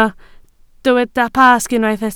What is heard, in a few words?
"Pasg", Welsh